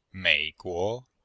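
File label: "mei3guo2", Chinese; Cours-de-Chinois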